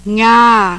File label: burmese